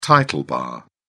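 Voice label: "title bar", British English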